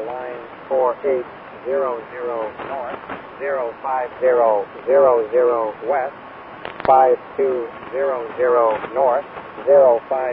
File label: gander volmet 10051